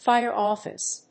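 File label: "fire office", English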